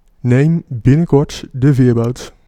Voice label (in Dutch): Ah Ferry, Dutch, male